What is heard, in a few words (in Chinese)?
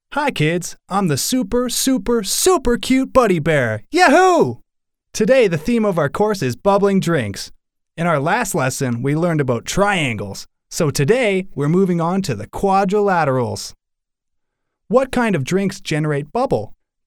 外籍男英 外语 美式英语 动画课件